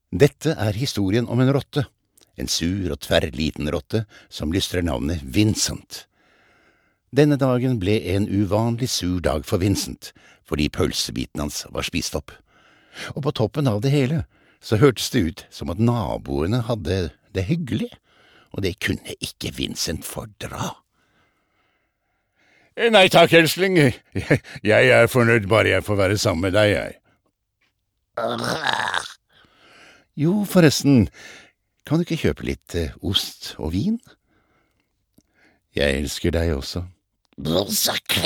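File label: no dub, norsk, mann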